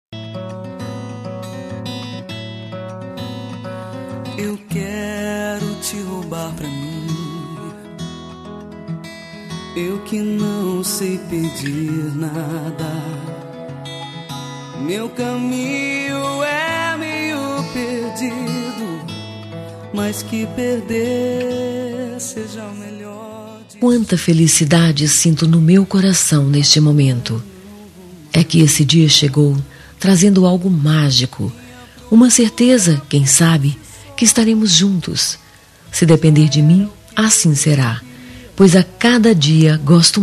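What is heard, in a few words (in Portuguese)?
Namorados, female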